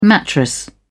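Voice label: "mattress", British English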